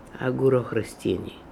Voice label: "Agoorokhrostiny", pronounciations; Fourth Way; Beelzebub